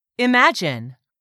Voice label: "IMAGINE", english; 3000 words

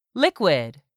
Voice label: "LIQUID", english; 3000 words

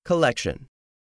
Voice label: "COLLECTION", english; 3000 words

